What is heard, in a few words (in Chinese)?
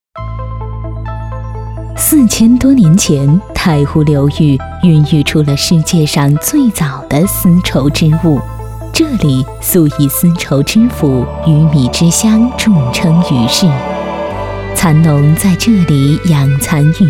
女76 专题; 辑里蚕丝